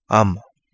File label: Kapingamarangi; canoe float